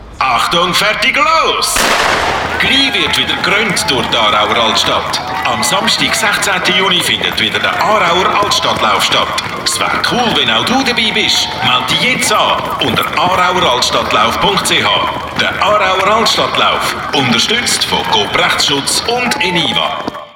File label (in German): Aarauer Altstadtlauf Werbespot